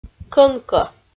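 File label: "кӧнкӧ", Komi-Zyrian, female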